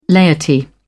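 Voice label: "laity", British English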